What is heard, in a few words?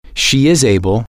she is able to can, English